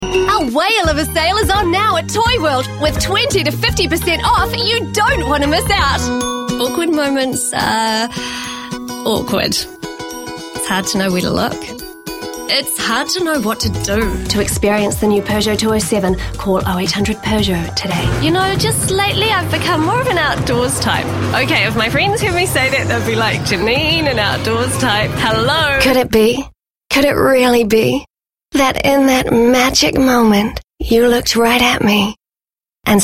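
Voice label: English New Zealand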